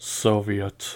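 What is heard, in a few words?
"soviet", US English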